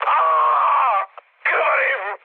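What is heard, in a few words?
Serbian damaged pilot mood high, speech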